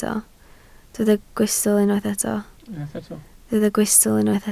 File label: "gwystl", Welsh